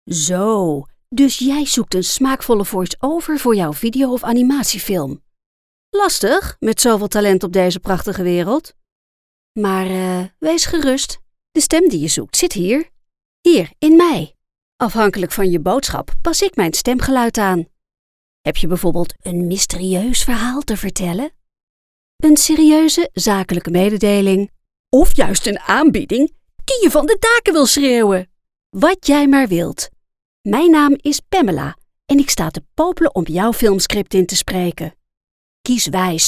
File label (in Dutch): Algemene Stempromo